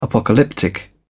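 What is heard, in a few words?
"apocalyptic", British English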